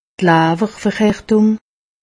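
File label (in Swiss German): "lawwerverhartung", Haut Rhin; medecine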